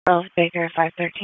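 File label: Police Downtown District Disp, radio; Raleigh; wake; p25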